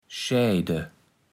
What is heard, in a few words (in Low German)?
"schaejde", Platt